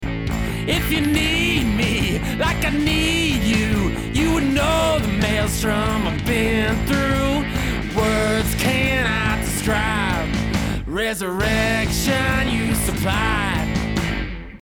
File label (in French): voix mix sans effet